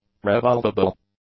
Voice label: "revolvable", English; adjectives

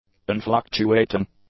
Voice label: "unfluctuating", english; adjectives

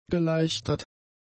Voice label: "geleichtert", German; adjectives

